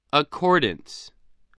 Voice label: "accordance", English voice